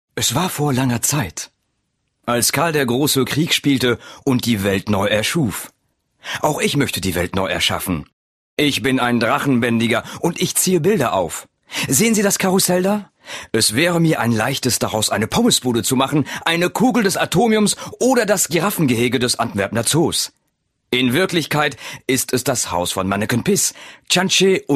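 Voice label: German, male, character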